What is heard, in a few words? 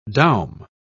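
"doum", British English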